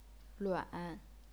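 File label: "luan", third tone, chinese pinyin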